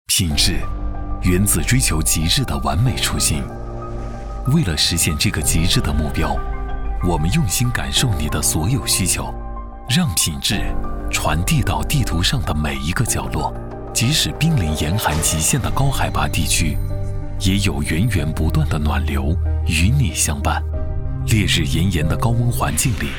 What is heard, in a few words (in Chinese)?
商务 中央空调品牌视频; 海信